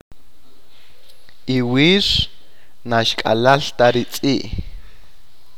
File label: yesterday the dog did not run, achi